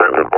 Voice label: announce kill order, combine officer; Half Life Alyx; Half Life Model Pack